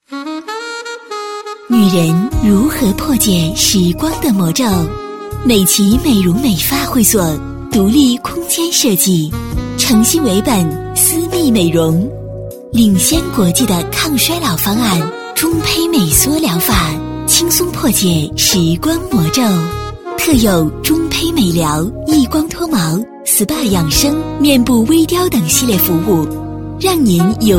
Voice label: 女; 医院

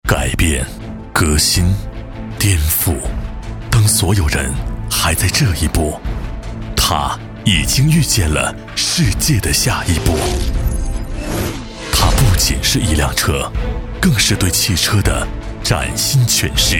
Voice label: 男 广告, 国语男声配音; 梅赛德斯奔驰S级; 交广主播

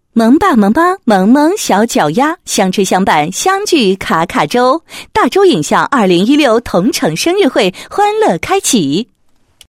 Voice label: 女 宣传广告 影像广告词; 大周影像广告词

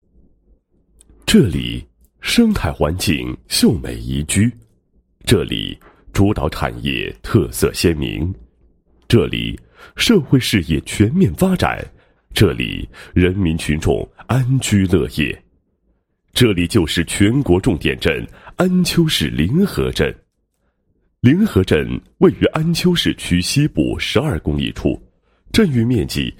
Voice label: 男 专题片; 凌河镇

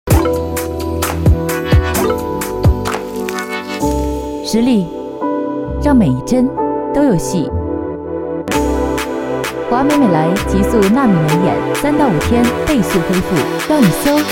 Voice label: 女, 广告; 华美美莱整形

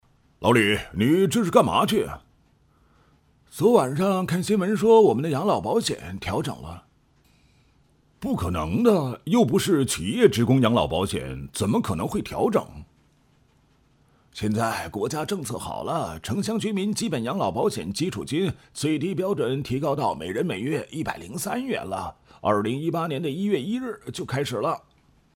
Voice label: 老年人配音; 职工养老

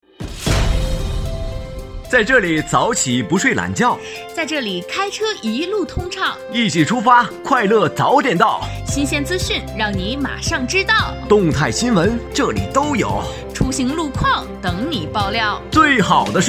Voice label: 电台 节目片头

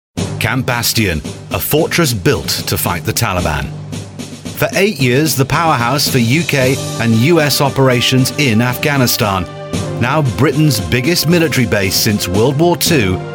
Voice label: 外籍 国际新闻播报 大气

